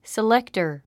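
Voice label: "selector", English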